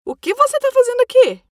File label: error page 404 noisy female brazilian portuguese voiceover